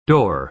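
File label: "door", American English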